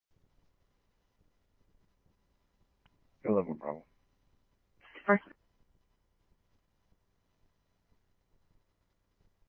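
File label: scanner, Phoenix Police Department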